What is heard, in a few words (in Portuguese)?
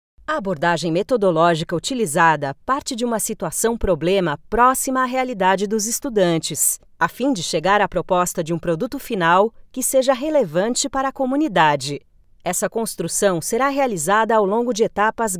Elearning Portugues